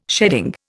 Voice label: "sheading", Indian